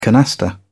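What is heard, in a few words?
"canasta", British English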